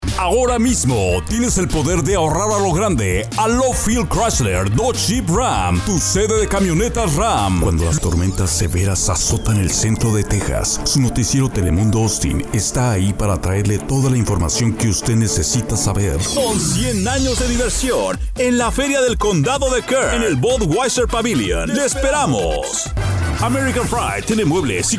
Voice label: SPOTS

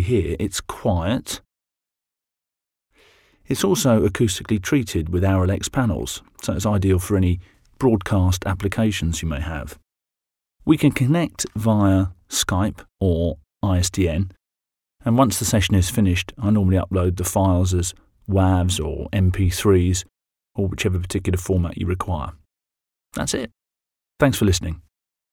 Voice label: Dry Studio

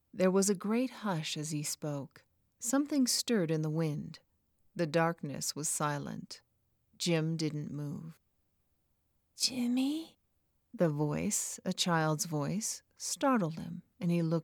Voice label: Audiobook Fiction

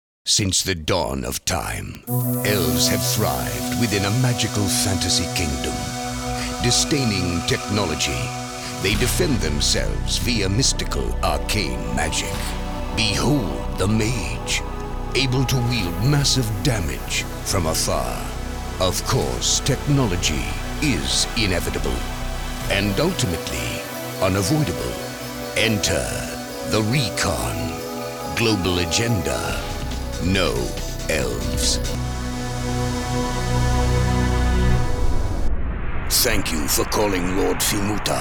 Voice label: GAMER CHARACHTER VOICE OVER

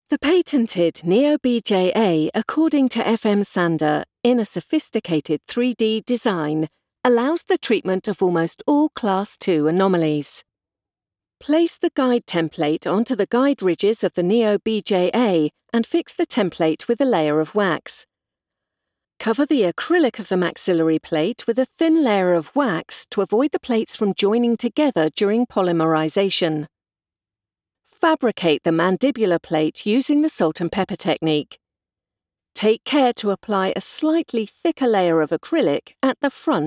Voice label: Medical Narration, Female British Voiceover; Medical Narrations; Technical / Medical

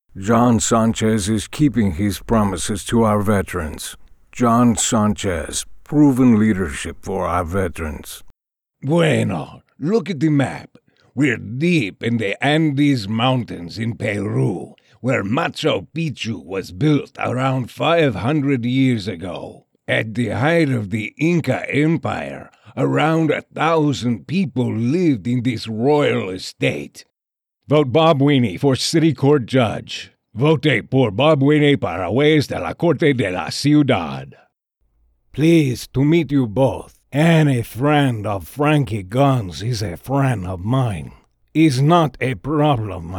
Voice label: Hispanic Voices

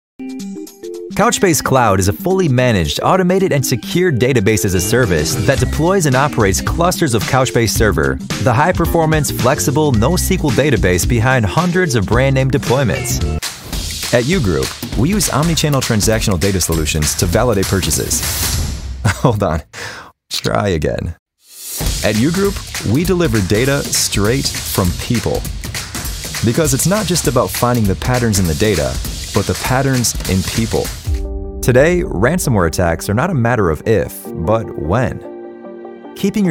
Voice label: Corporate Narration

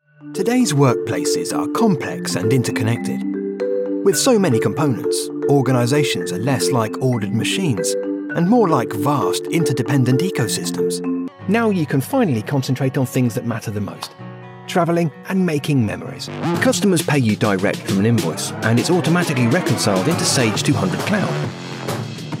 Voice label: Explainer

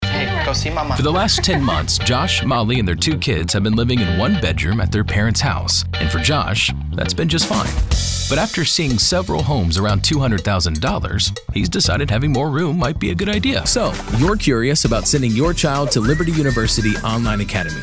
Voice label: Narration, Friendly, Confident, Smooth Male Voice

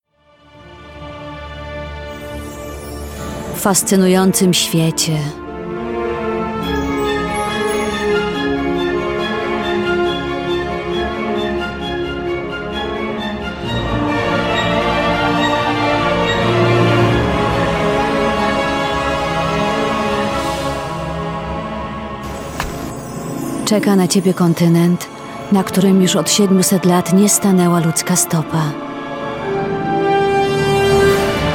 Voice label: Game Trailer PL; Therian

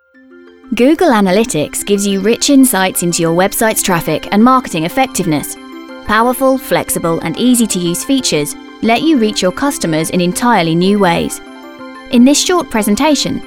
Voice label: Narrative

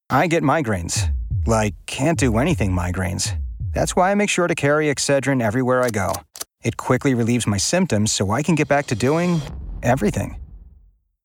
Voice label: Commercial Consumer Goods Medicine

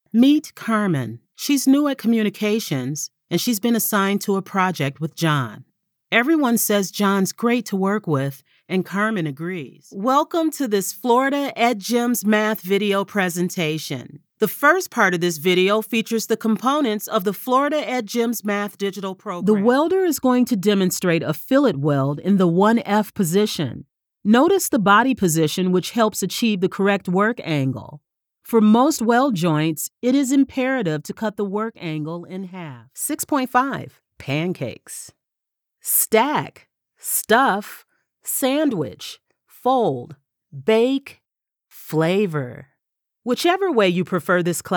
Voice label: elearning

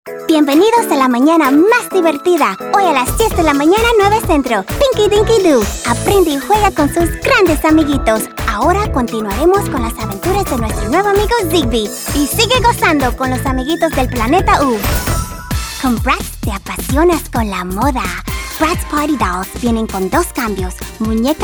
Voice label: Spanish

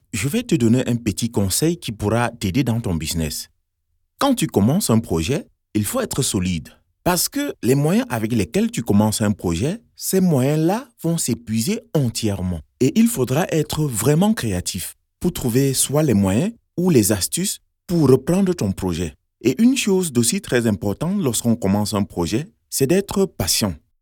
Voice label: French VO

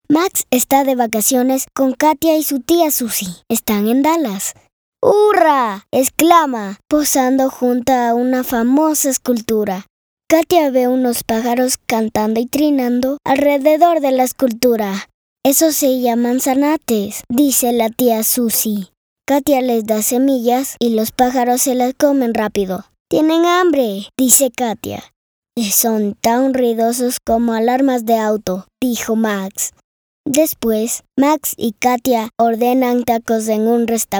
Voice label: Real Child Voice

